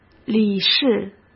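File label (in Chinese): "理事", Mandarin Chinese; hsk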